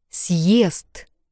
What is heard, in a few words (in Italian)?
Ъ in russo